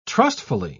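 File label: "trustfully", British English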